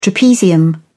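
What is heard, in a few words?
"trapezium", British English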